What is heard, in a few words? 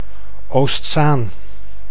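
"Oostzaan", Dutch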